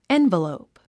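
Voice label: "envelope", English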